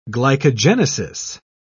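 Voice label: "glycogenesis", English, British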